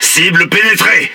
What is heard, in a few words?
Speech Hit Confirmation, FR; World Of Warships